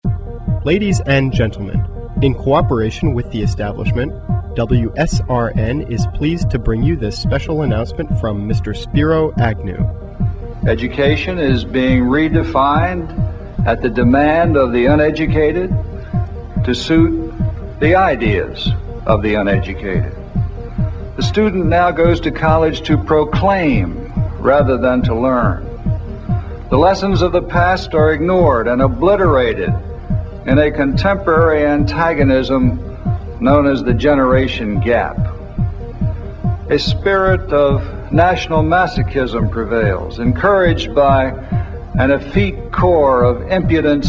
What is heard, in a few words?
vintage call signs; wsrn; spiro high